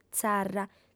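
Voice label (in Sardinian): "tzarra", Sardinian, female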